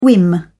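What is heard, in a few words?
"whim", British English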